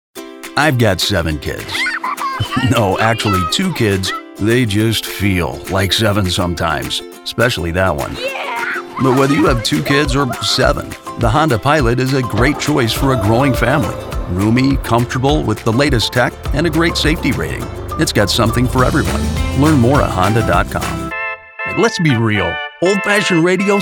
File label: Commercial